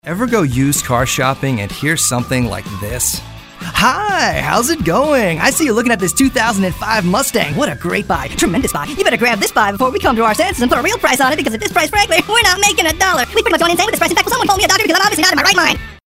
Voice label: Kooky